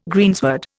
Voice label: "greensward", Indian

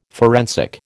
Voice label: "forensic", American

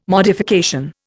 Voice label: "modification", Indian

